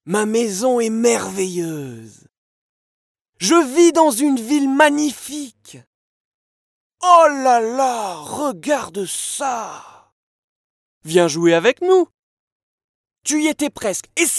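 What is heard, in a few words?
French male children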